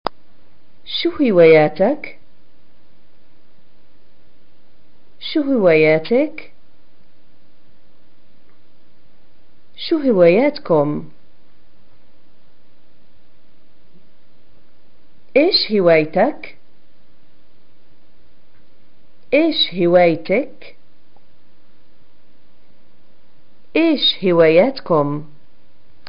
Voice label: hobbies, arabic, pl